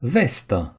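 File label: "vest", Romanian; gcompris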